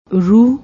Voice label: "rooh", Punjabi

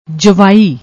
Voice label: "javaai", Punjabi

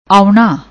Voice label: "auna", Punjabi; ਆਉਣਾ

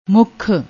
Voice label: "mukhh", Punjabi

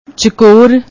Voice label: "chakor", Punjabi; ਚਕੋਰ

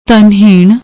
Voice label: "dhanheenn", Punjabi

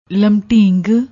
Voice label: "lamdeeng", Punjabi

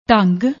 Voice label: "dhung", Punjabi; ਢੰਗ

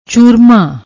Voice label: "choorma", Punjabi; ਚੂਰਮਾ

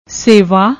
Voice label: "sewa", Punjabi; ਸੇਵਾ

